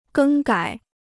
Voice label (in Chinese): "更改", Chinese